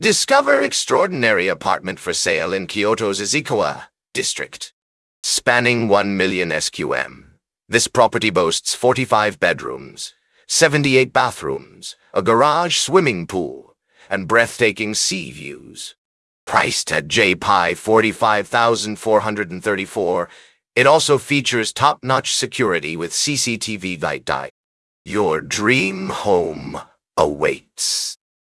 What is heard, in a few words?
narration audio